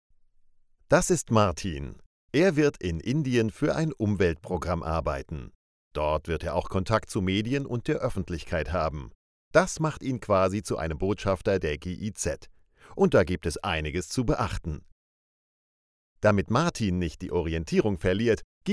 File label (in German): Voiceover; GIZ 2015